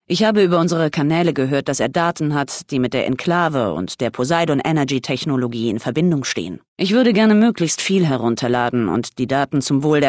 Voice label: Female adult

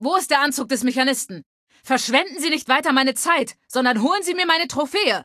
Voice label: Female adult default greeting

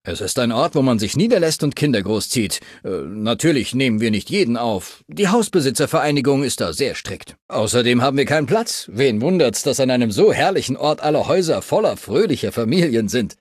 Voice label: Male adult default dialogue town topic

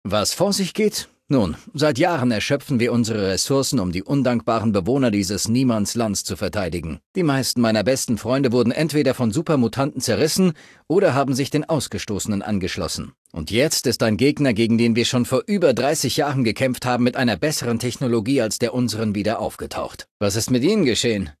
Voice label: Male adult default dialogue; Fallout 3: Audiodialoge

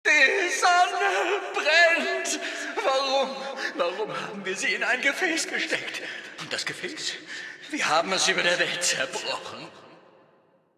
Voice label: Male adult default

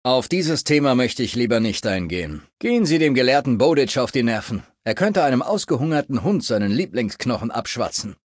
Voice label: Male adult default dialogue

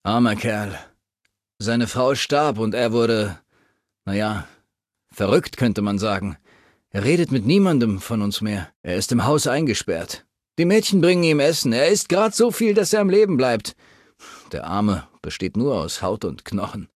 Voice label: Male adult default dialogue town topic

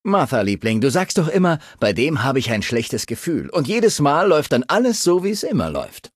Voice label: Male adult talk

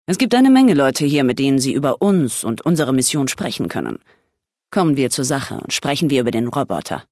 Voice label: Female adult

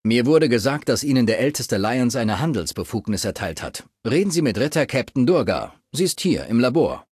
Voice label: Male adult default dialogue; Kategorie:Fallout 3: Audiodialoge

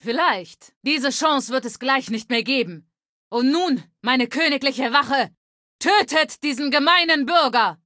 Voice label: Female adult

